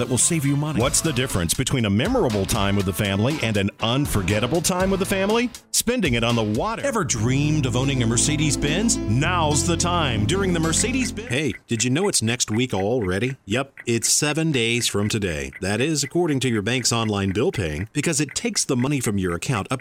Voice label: med sell